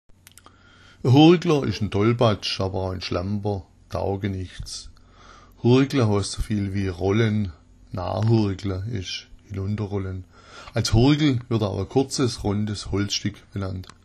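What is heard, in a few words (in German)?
hurgler, schwäbisch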